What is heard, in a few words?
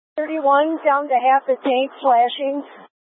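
Incident Tactical 2, Scanner audio recording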